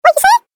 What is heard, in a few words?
Cartoon Game Voice What You Say